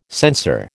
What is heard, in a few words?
"censer", American